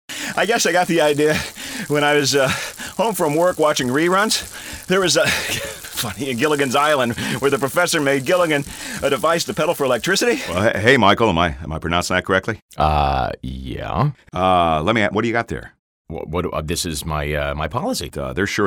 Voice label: Character Reel

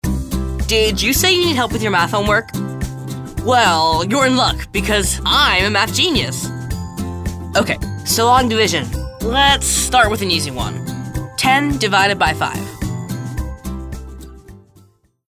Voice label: Talking Toy

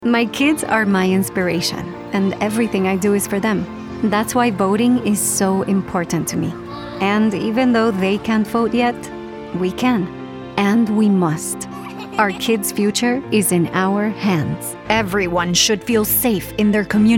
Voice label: Political English Democratic

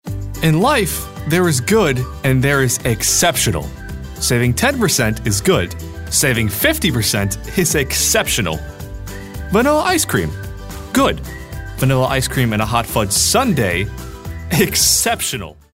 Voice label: upbeat

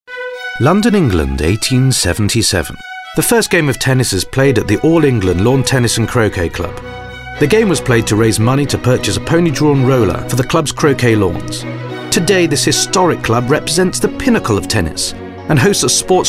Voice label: elegant

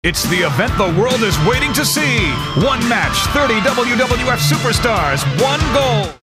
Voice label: attitude

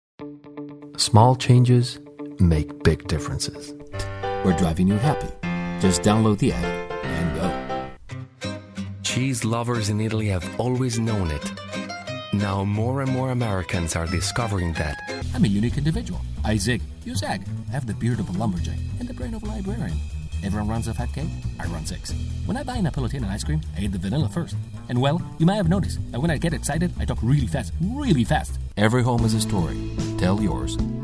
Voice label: 60 Second Commercial

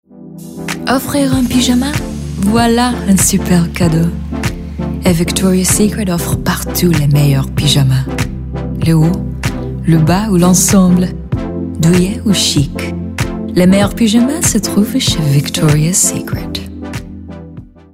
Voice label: French; Victoria's Secret

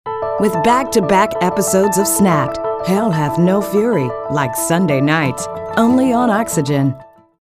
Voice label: imaging

